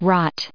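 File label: "rot", American English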